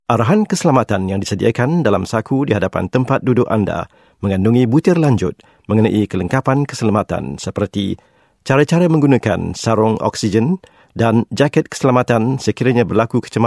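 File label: Malay; Malay, Male, Home Studio, 30s-40s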